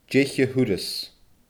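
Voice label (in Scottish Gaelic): "deich a thuras", Scottish Gaelic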